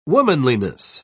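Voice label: "womanliness", British English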